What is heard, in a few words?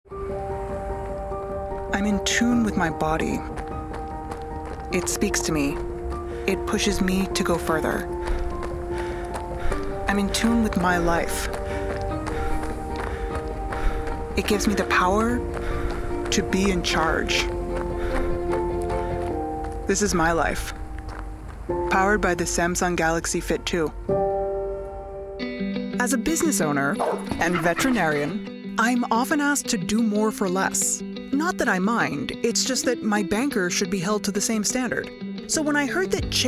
commercial vo